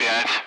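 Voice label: Speech Russian; Allied Flight; Digits; DCS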